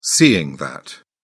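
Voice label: "seeing that", British English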